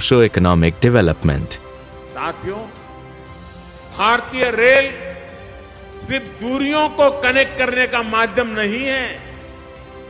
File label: Railways English; Karnataka Railways